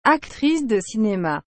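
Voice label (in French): "actrice de cinema", French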